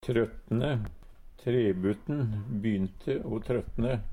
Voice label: "trøtne", Numedalsmål

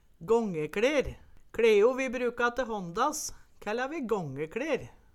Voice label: "gångekLer", Numedalsmål; Ordet nyttas berre i fleirtal.

